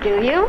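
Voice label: "do you", English; questions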